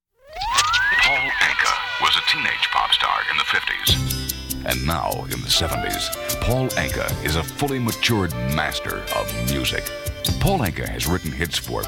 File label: Radio Commercials; 1974